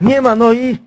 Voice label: "oops", Worms speechbanks

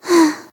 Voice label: "oh dear", Worms speechbanks; Hat Kid

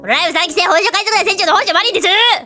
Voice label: "Hurry", Worms speechbanks; Houshou Marine

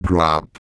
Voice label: drop, Microsoft Sam

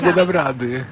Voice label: "ohdear", Worms speechbanks

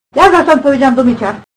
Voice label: "run away", Worms speechbanks

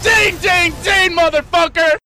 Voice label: Victory, Worms speechbanks; Memes

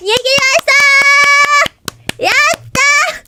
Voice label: Victory, Worms speechbanks; Houshou Marine

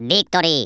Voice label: victory, Worms speechbanks

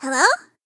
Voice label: "hello", Worms speechbanks; Hat Kid

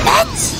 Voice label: "Fireball", Worms speechbanks; Watson Amelia

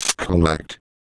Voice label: collect, Microsoft Sam, Worms speechbanks

